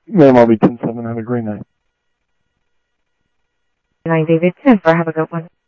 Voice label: Sheriff Central, scanner audio trunk; MCSO Central Sheriff-Central; ThompsonPeakScot

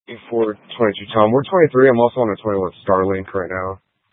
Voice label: Phx PD Dispatch, scanner; A05 500 Central City Prec; C- Chandler

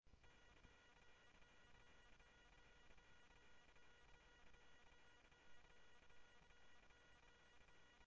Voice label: scanner audio trunk

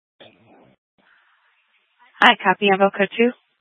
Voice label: Fire Departments, scanner; C4 Gilbert, QC, Superstition; TOPAZ; Mesa Simulcast

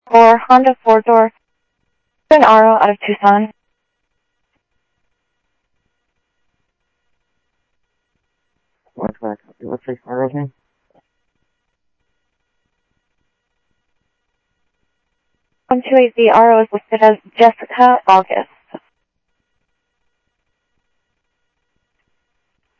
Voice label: Sheriff, scanner audio

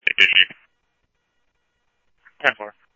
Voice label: Scottsdale Police, scanner audio; H-Scottsdale

